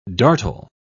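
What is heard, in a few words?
"dartle", English, British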